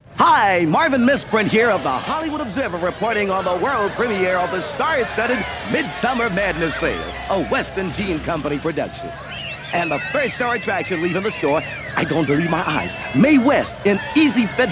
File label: Radio Advert; Western Jean Company; Radio Hallam